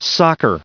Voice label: "soccer", en-us